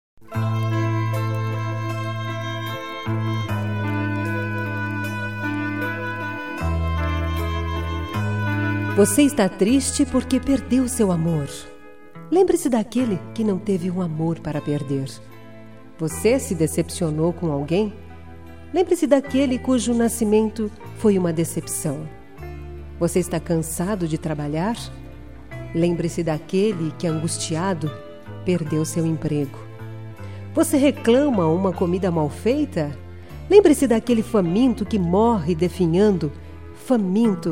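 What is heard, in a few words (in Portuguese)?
Religiosa Mensagem pra Quem Anda Lamentando, Feminino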